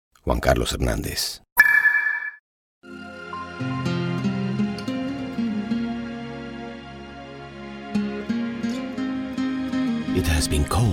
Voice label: English

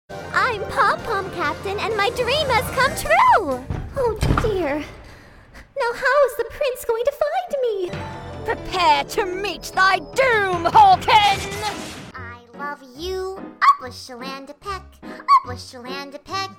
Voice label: Animation

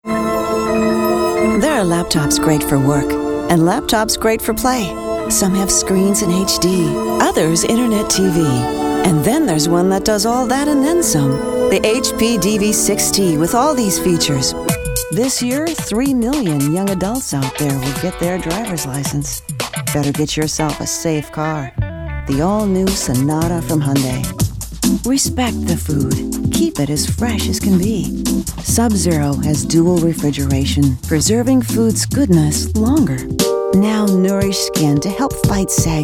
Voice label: Commercial

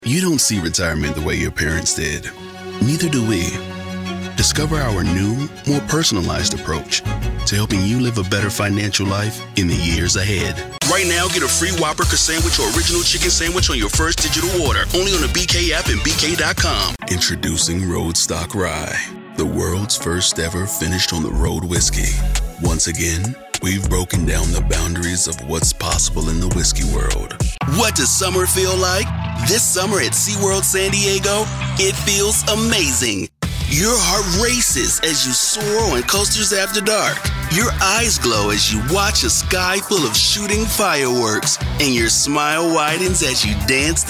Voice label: Commercial

